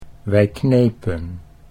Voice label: "wij knepen", Dutch